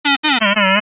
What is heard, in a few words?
Initial Focus Question glides; gliding tones